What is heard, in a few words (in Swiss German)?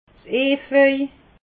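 "aphai", Alsatian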